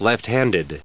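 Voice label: "left-handed", US English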